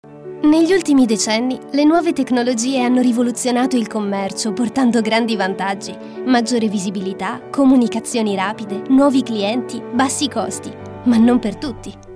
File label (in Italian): istituzionale